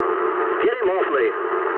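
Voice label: aircraft damaged mood low, speech English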